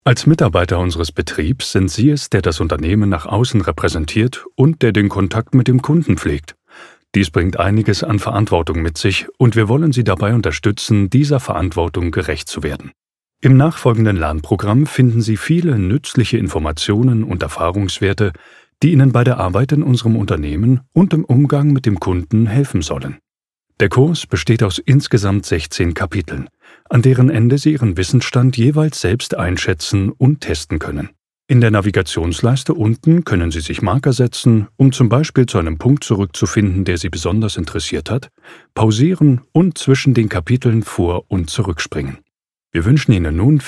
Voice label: Hoerprobe E-Learning Mitarbeiterschulung

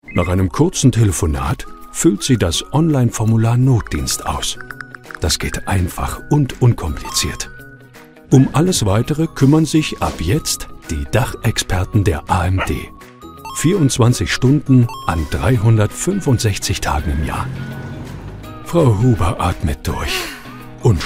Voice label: Hoerprobe Erklaervideo; AMD

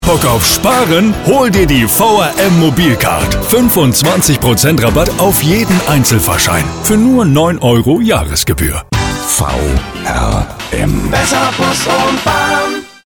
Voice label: Hoerprobe Werbung; VRM short

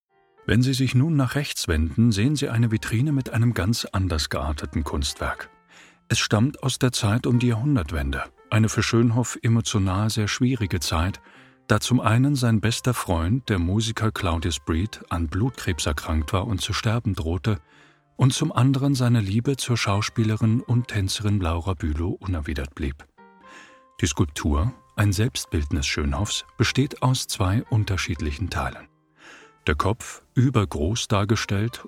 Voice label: Hoerprobe Audioguide Kunstausstellung

